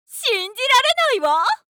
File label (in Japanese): システムボイス